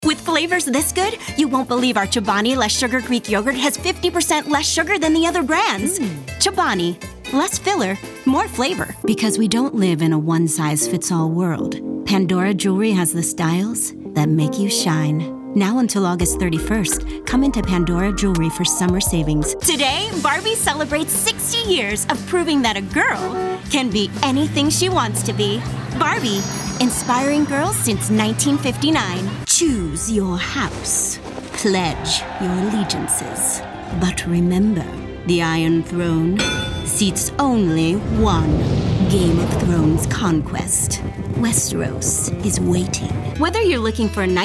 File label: commercial demo